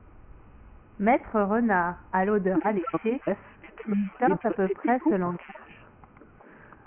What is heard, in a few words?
long example separated french